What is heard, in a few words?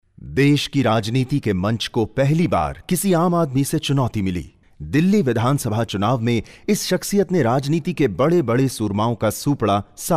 narration hindi